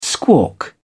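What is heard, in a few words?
"squawk", British English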